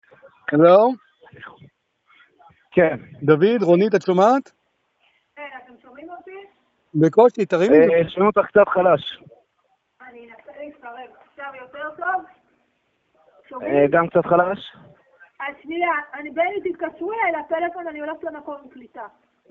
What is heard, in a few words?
Call recording Conference call